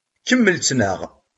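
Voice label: "Kemmel mbiwel.", Kabyle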